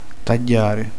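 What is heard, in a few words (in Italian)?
"tagliare", Italian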